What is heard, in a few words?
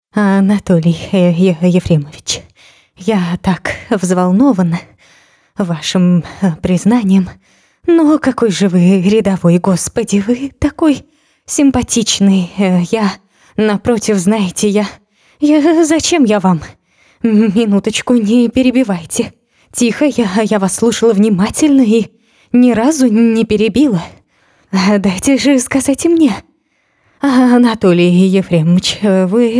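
parody Russian; Kalygina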